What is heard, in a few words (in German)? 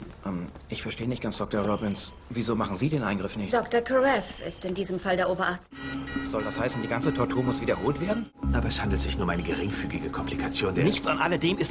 synchron; grey s anatomy